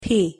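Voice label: "p" pronunciation English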